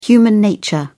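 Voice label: "human nature", English, uk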